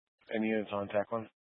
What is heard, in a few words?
Incident Tac 1, scanner